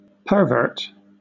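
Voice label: "pervert", British English, noun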